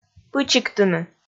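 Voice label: "пычиктыны", Komi, female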